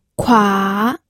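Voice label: "kua", Mandarin Chinese, third tone; pinyin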